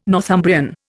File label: "Northumbrian", Indian